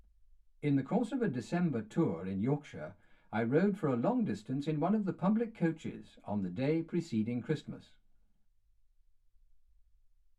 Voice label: male speech english